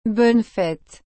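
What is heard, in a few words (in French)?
"bonne fête", French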